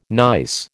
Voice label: "gneiss", American